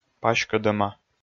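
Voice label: "паськӧдӧма", Komi, male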